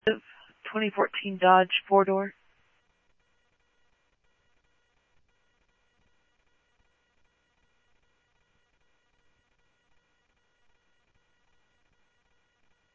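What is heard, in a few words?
Mesa Police Department, scanner audio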